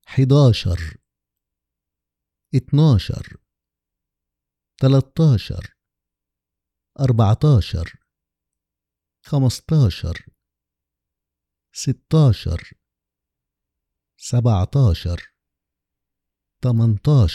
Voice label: numbers 11 20 Egyptian